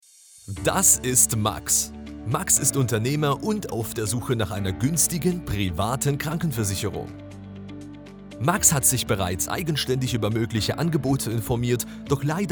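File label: German, male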